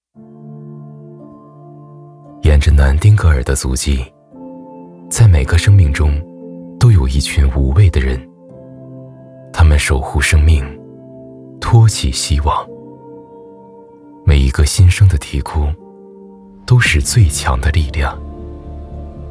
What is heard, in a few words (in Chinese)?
宣传温暖走心